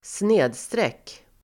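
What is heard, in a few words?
"snedstreck", Swedish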